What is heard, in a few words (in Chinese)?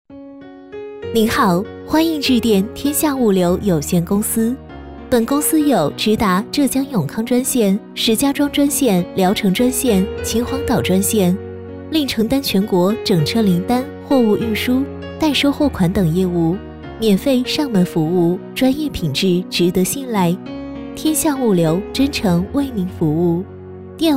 女 其他 彩铃 物流有限公司; 天下物流有限公司